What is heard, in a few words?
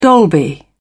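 "dolby", British English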